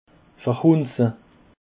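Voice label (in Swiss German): "verhunze", Alsatian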